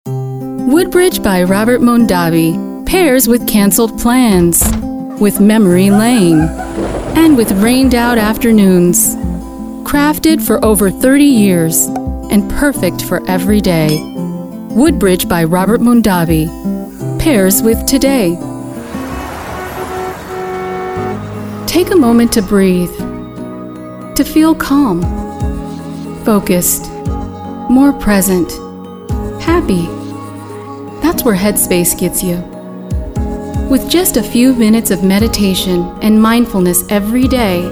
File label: Commercial